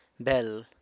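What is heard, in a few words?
"bel", San Lucas Quiaviní Zapotec; sister (of a female)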